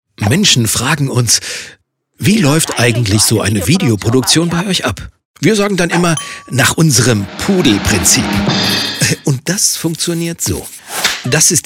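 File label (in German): Erklärvideo humorvoll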